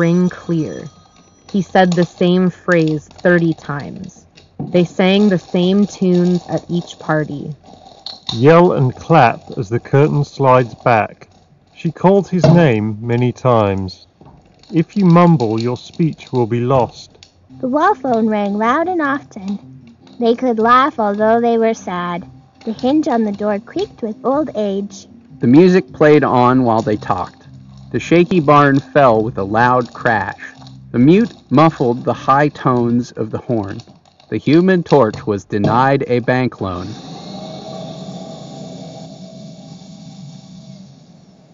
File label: Grell Audio TWS1 Office microphone sample